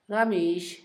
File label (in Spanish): camisa, Zapoteco, Asunción Ocotlan; INALI; Haspelmath